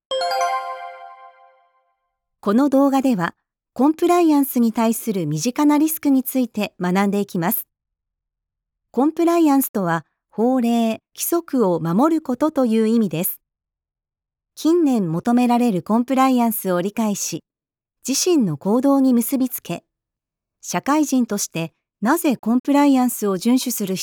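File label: japanese e-learning, female Japanese; voice actor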